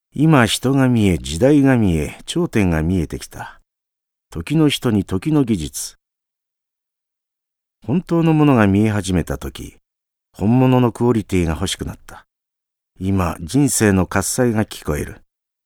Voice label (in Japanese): CM, 大阪弁・河内弁